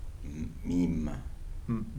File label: "fufu", Kibembe